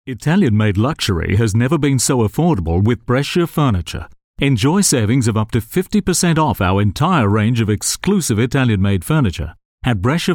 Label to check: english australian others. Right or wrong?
Right